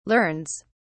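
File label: "learns", English, British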